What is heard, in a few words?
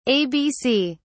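"abc", British English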